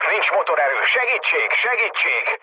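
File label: Hungarian damaged engine mood low, speech; War Thunder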